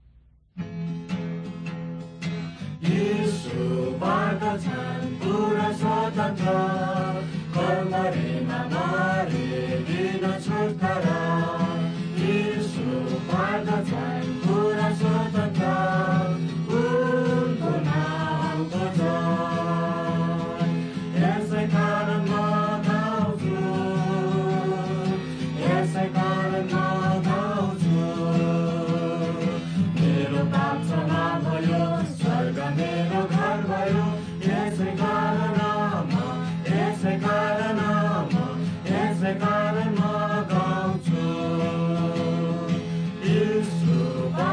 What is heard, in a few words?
words of life newari bhaktapur